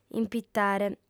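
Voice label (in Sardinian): "impitare", Sardinian, female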